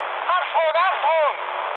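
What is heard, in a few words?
German bailout mood high, speech; War Thunder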